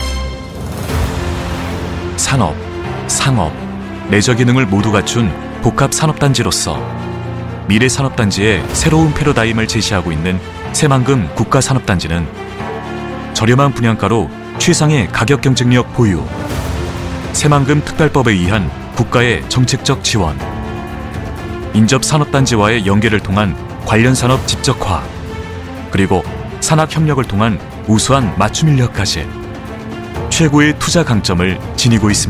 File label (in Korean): 성우 내레이션 신뢰감 밝음; 새만금산업단지